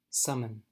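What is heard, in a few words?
"summon", US English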